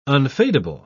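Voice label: "unfadable", English, uk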